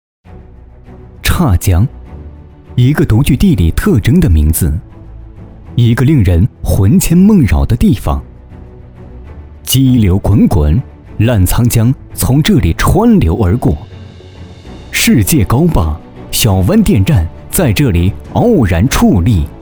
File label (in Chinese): 大气 学校 宣传片